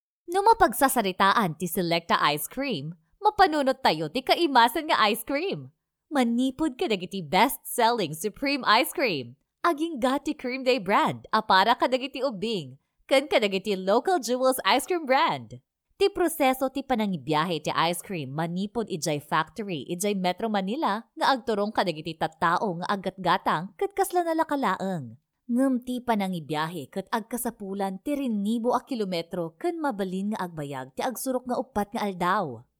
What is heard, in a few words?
female ilocano voice over narrative